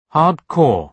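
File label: "hard core", British English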